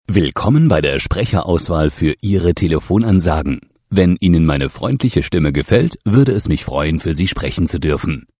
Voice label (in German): INTRO deu freundlich